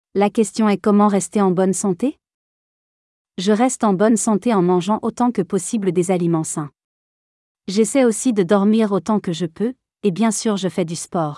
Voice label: Audiobook Voice